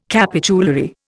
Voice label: "capitulary", Indian

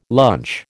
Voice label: "lunch", American

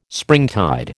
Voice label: "springtide", American

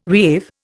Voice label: "reave", Indian

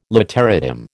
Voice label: "literatim", American

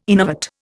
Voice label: "innervate", Indian

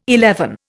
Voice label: "eleven", Indian

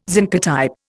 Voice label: "zincotype", Indian

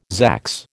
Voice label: "zax", American

